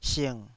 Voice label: "sing3", Taiwanese